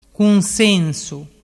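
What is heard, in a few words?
"cunsensu", Vernazzese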